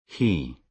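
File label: "he", British English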